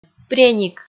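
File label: "преник", Komi, female; kpv; audio dict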